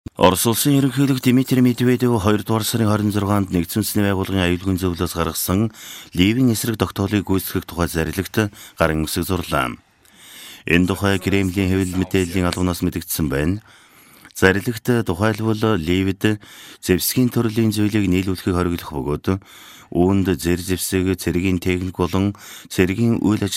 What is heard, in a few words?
mongolian